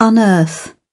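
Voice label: "unearth", British English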